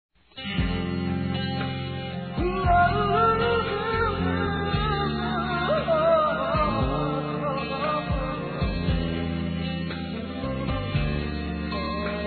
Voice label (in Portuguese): PARAB. PELO BEBE, Telemensagens; Parabenização